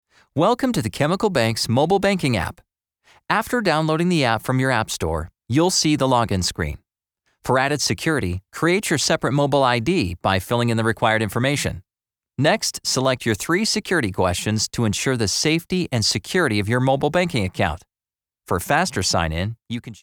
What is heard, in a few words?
app tutorial video; chemical bank